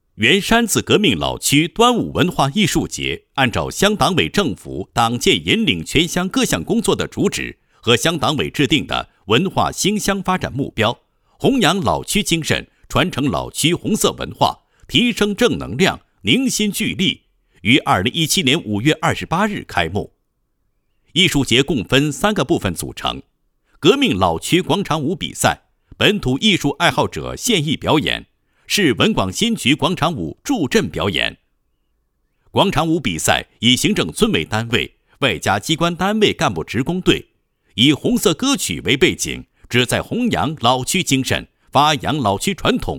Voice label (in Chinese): 旁白配音; 元山子革命老区端午文化艺术节简介